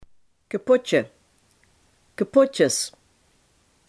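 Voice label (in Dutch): "condoom" Limburgish dialect word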